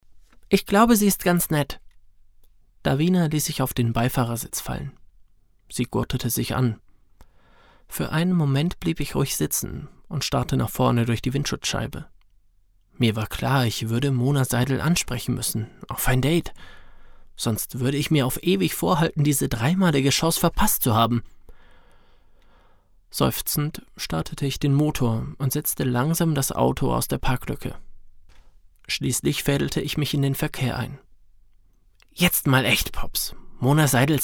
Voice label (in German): Hoerbuch; Gluehweinduft Weihnachtskuesse